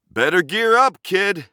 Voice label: Warrior Taunt; Realm Royale